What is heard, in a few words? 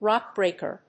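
"rock-breaker", English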